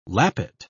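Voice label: "lappet", British English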